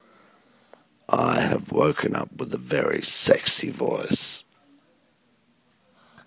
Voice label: sexy morning voice